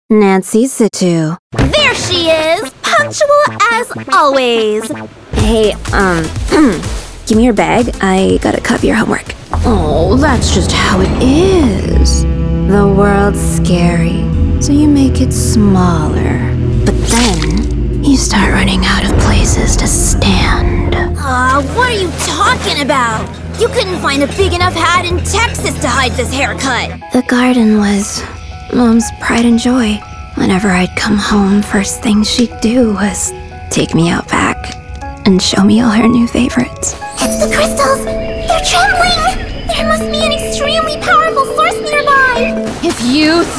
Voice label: Animation